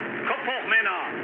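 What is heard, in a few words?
German near waypoint mood low, speech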